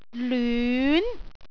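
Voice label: "lyun2", Cantonese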